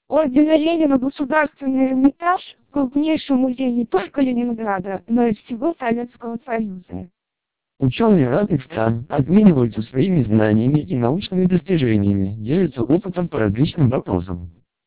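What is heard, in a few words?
Russian speech, TWELP 480 bps vocoder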